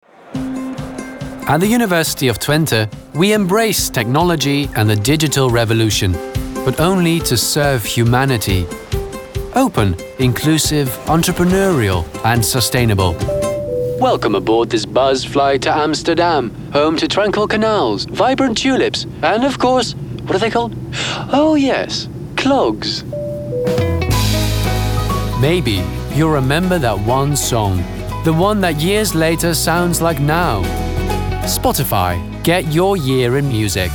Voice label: commercial, UK